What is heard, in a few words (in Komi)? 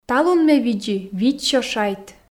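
"талун ме видзи 500 шайт", Komi, female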